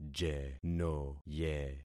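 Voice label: "jenoye", concatenated syllables; Affixing experiment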